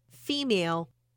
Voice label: female